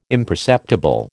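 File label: "imperceptible", American English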